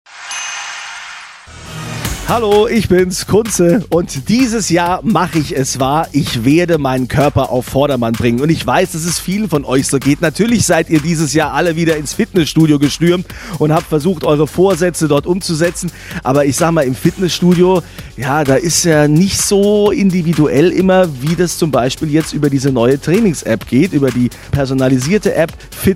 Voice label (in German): Aufrufphase Infomercial